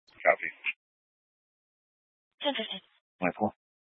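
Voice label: Police Dispatch, scanner audio recording; Police 1 Dispatch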